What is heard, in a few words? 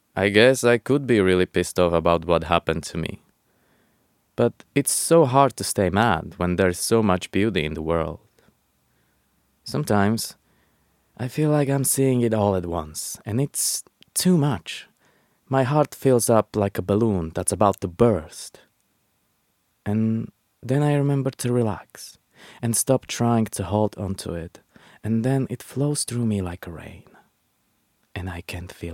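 Czech Accented English; GoLocalise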